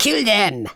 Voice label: "dragon punch", Super Villain; speech